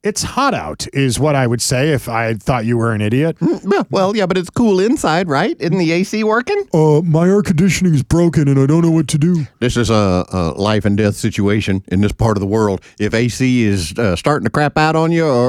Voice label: RADIO AD; nicks plumbing radio ads